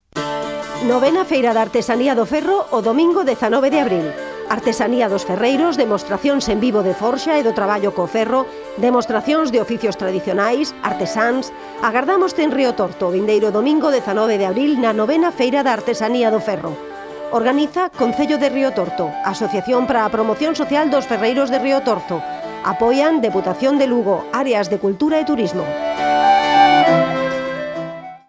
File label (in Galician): anuncio radio; feira da artesania do ferro; riotorto